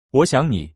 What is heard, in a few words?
"I miss you", Mandarin